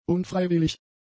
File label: "unfreiwillig", German; adjectives